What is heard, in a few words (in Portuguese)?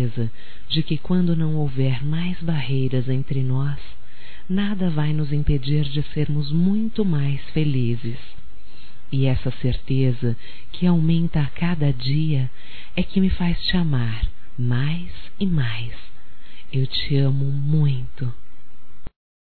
SONHO COM VOCÊ - F, Telemensagens Românticas